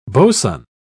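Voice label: "boson", British English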